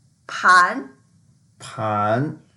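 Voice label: "pan2", Mandarin Chinese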